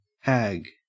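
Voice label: "hag" Australian English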